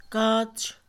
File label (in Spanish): pecho, Mixe, Mujer; Cuerpo; INALI